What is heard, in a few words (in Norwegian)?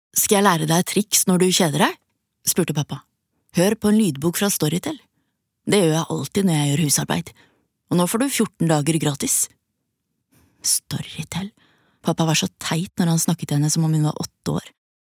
Lydbok